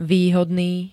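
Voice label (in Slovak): "výhodný", Slovak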